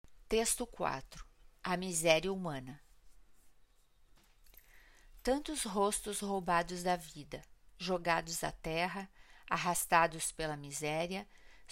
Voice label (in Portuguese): narração; A miséria humana; galeria virtual